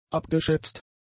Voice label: "abgeschätzt", German; adjectives